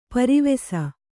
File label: "ಪೆರ್ವಸ", Kannada